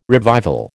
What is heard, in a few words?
"revival", American